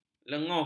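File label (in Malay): "lenguh", Malay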